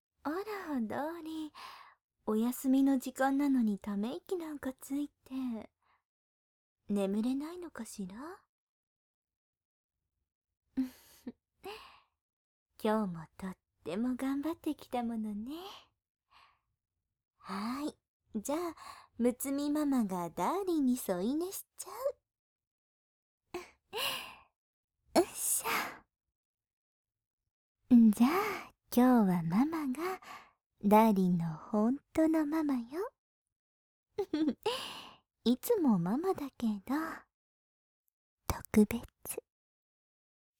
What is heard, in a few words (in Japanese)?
癒しの添い寝,ASMR; 六海ママ; 癒し系専属ナースの七海さん六海さんに愛されちゃうバイノーラル